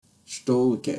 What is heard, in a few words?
"Shuto uke", Japanese; Basic Japanese Terms; karate